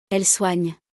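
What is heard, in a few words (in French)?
"elles soignent", French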